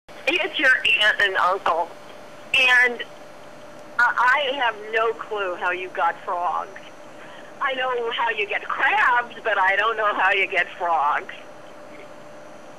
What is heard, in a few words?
Voicemails